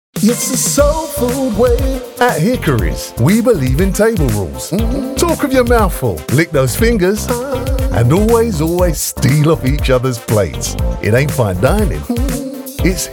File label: Commercial Soul Food